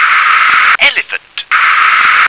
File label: "elephant", English